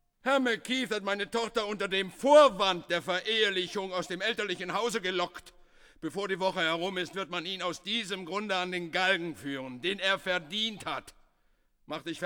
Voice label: Dialog; The Threepenny Opera [1988 German Studio Cast]